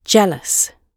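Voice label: "jealous", British English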